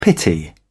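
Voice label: "pity", British English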